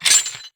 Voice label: cocky; blackquill